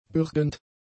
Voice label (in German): "bürgend", German; adjectives